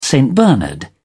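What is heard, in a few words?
"st bernard", British English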